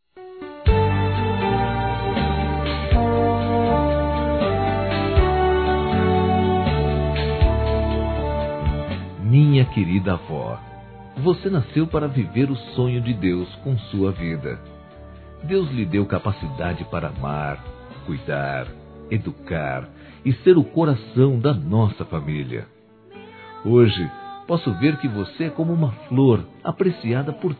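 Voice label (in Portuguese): AVÓ Masculino, Aniversários; ANIV. Evangelico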